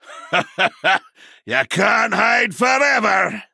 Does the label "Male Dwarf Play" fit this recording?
Yes